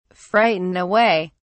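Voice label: "frighten away", British English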